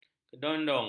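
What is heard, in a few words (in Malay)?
"kedondong", Malay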